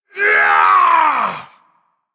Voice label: Male Human Death